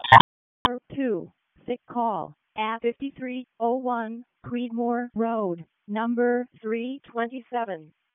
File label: WC EMS ALERT, p25